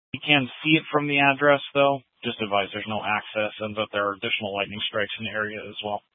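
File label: Fire Dispatch, scanner audio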